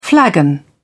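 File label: "flagon", British English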